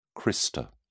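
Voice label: "crista", British English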